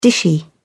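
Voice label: "dishy", British English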